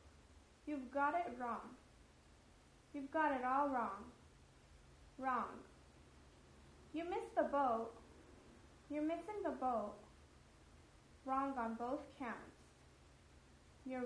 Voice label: stating categorical disagreement